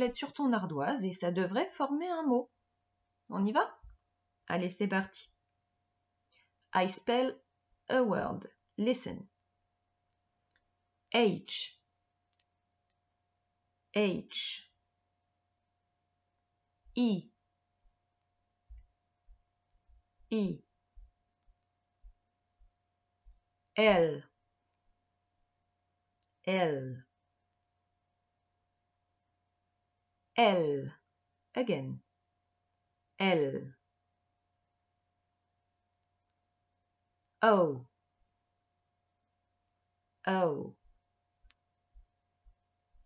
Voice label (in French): Hello anglais enregistrement vocal